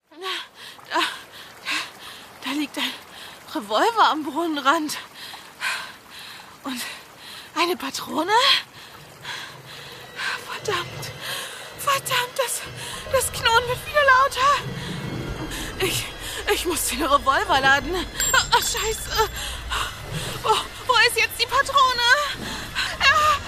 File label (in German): Hoerspiel; Der Friedhof